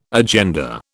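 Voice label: "agenda", British English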